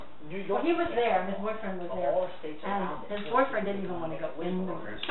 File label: Female